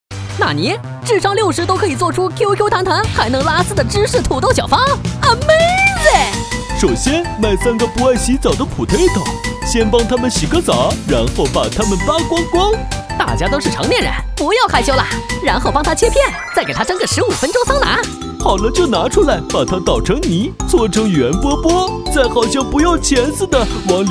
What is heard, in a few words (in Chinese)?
男 角色 搞笑幽默三角色